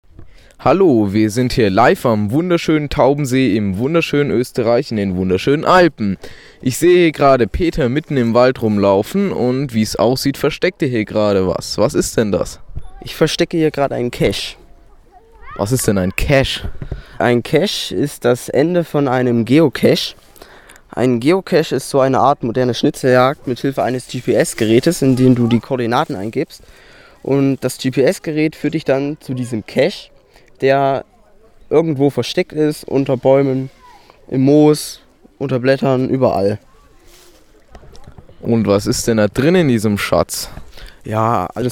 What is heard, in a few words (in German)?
Reportage